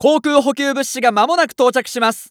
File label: Announce Air Supply Ready, JPN; Eternal Return